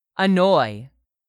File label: "annoy", English; 3000 words